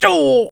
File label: Civilian pain sharp